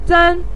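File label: "zan" neutral tone, Mandarin Chinese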